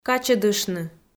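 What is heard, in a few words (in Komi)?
"качӧдыштны", Komi, female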